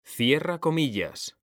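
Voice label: "cerrar comillas", Spanish; mekanta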